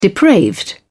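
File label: "depraved", English, British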